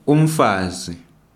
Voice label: "umfazi", woman, Ndebele

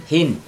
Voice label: "one", Chug

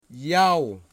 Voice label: "house", Wamut

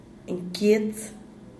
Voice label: "nkiets" blanco

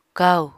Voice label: "kaù", Apatani

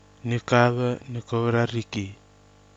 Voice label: "nɨkava nɨkovrariki", Kwamera

